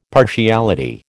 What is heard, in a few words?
"partiality", American